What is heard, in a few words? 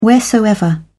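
"wheresoever", British English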